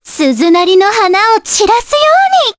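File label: voice, Japanese; Cassandra; kingsraid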